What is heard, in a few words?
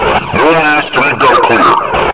lost long, combine soldier, near; hl2